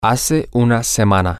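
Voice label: one week ago, Spanish, male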